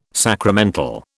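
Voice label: "sacramental", British English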